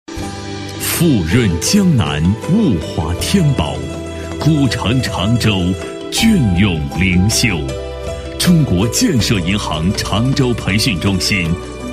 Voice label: 男40 专题 抒情解说, 国语男声配音

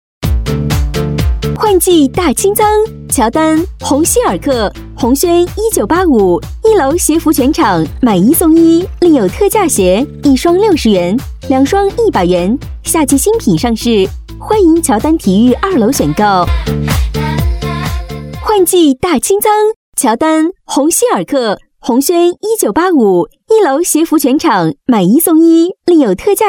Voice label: 女 促销 换季大清仓

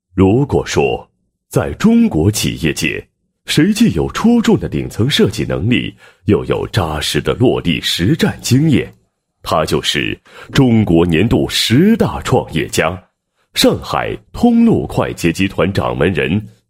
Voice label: 男 人物简介; 某集团掌门人; 个人视频简介

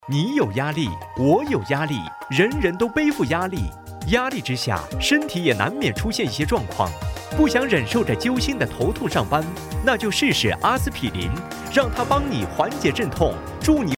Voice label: 男, 产品介绍, 医药类, 阿司匹林产品介绍

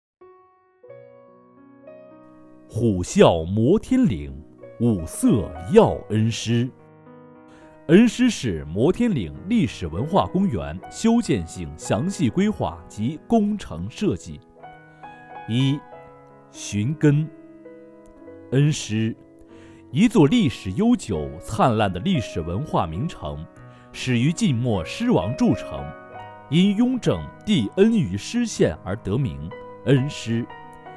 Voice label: 男 专题 宣传片, 国语男声配音, 浑厚; 恩施项目

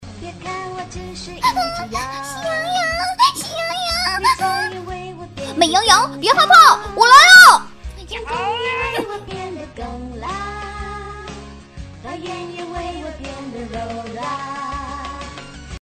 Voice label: 角色模仿, 国语女声配音; 模仿喜洋洋美羊羊

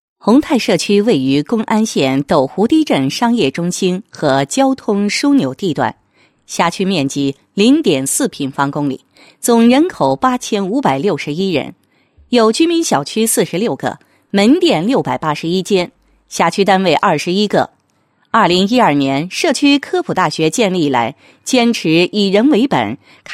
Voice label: 女 纪实; 宏泰社区科普活动纪实

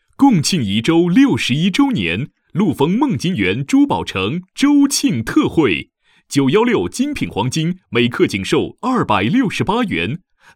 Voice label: 叫卖 店铺广告; 禄丰梦金园珠宝城

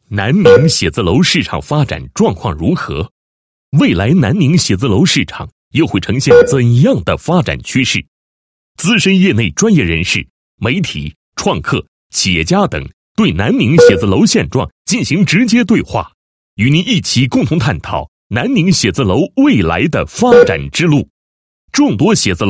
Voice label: 男 宣传广告; 暨2015年南宁写字楼白皮书发布会宣传

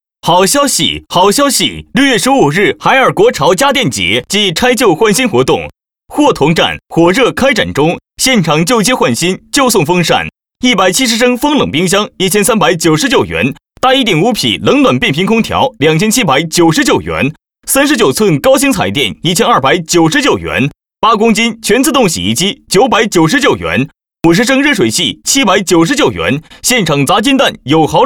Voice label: 促销广告; 彩电

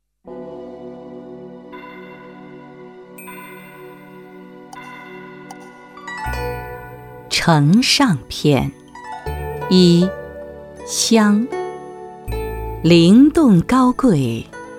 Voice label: 女 香业 抒情慢速; 普陀

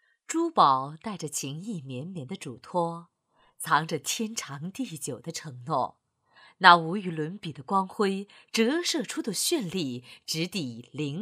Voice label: 女 广告 珠宝

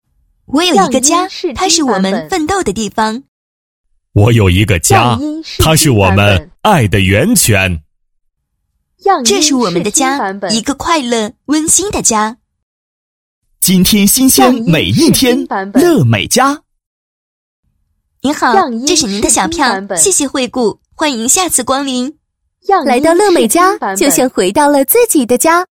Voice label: 男女合配 宣传广告男女合配; 乐美家

